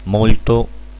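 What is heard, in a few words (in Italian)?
"molto", Italian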